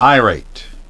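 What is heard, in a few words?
"irate", English pronunciation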